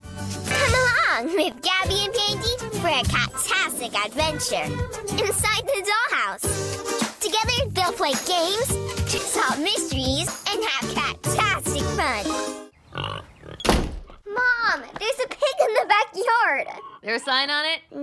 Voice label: VO Commercial; lil joy kids voiceovers